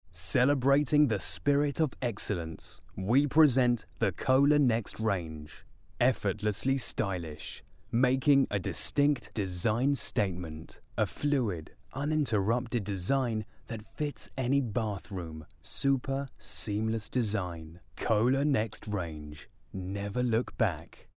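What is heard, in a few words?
explainer english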